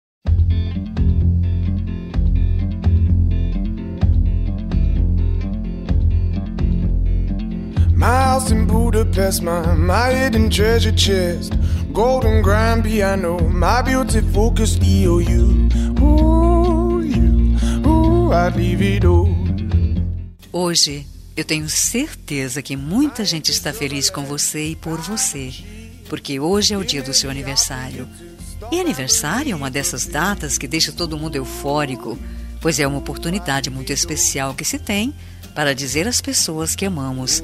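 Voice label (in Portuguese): birthday IRMAO DISTANTE female, telemensagem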